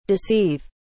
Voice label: "deceive", English